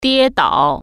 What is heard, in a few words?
"fall down", Chinese